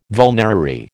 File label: "vulnerary", American